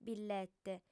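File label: "billete", Sardinian, female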